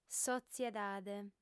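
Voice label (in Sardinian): "sotziedade", female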